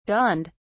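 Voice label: "dawned", English, British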